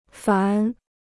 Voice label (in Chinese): "凡", Chinese